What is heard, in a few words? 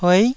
"tuei9", taiwanese